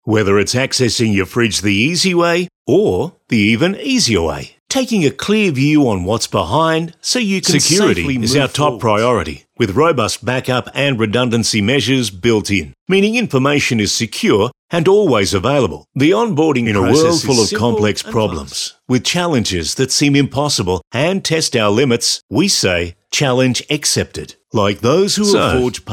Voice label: Natural